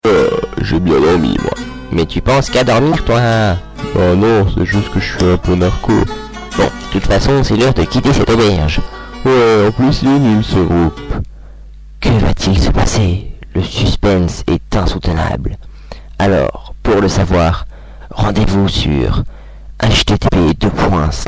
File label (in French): bande annonce